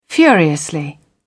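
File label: "furiously", British English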